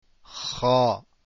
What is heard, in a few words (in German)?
Cha, Aussprache arabisch; خ (Cha)